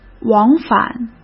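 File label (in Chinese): "往返", Mandarin Chinese; hsk; chinese-flashcards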